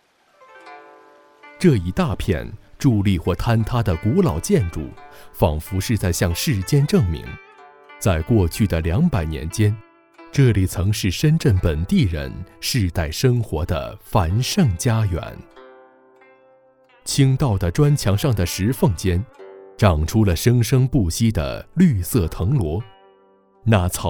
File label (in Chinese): 男, 解说; 鹅公村